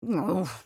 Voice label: Emote Negative